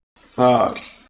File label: "thar", Proto Germanic